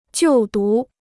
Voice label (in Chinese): "就读", Chinese